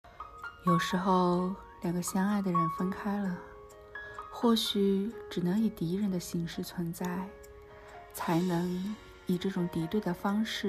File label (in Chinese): 电台 样音